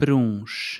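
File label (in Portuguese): "pruns", português europeu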